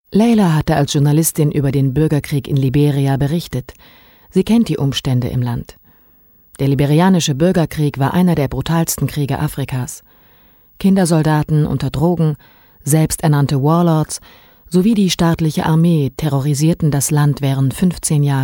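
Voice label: german female narration; voice demos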